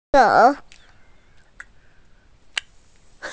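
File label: "tou2", Mandarin Chinese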